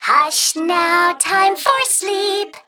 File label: Female Mech Play